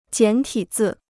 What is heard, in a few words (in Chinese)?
"简体字", Chinese